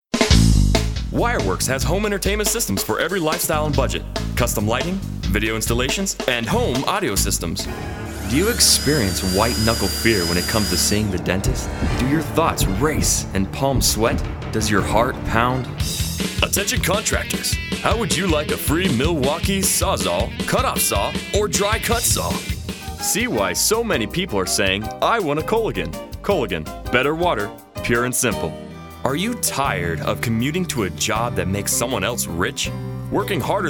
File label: Commercial, voice over